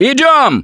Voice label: emphatic go, russian; Gambler

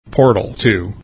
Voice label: "Portal two", taunt

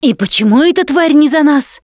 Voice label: warn tank, Russian; Voice; Producer

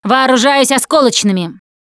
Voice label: explosive ammo, russian, Survivor Voice Producer

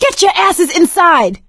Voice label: stay together inside, survivor voice; Left 4 Dead 2; producer

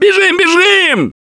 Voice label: yell run; Gambler

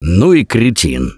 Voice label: reaction negative, russian; Gambler

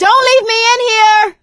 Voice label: call for rescue, survivor voice; Left 4 Dead 2; producer

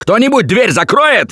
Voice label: Close The Door, russian; Gambler

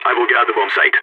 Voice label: going to defend bomb site, balkan; Counter-Strike Global Offensive

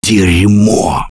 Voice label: reaction negative, russian; Gambler

